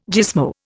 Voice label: "gismo", Indian

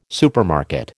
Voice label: "supermarket", American

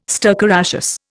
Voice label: "stercoraceous", Indian

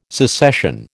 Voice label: "secession", American

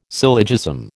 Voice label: "syllogism", American

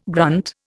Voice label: "grunt", Indian

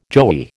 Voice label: "joey", American

